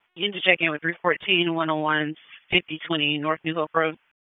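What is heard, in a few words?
Police Northeast District Dispatch, Raleigh; wake p25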